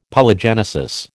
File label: "Polygenesis", American